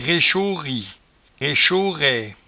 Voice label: "rechori", patois jura

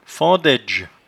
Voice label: "fendaidge", patois jura

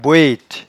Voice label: "boete", patois jura

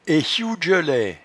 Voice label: "echouedgelaie", patois jura

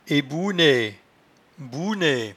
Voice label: "ebouenaie", patois jura

